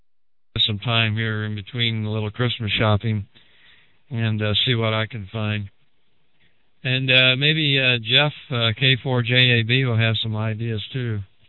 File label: HF Digital Voice 20 mtrs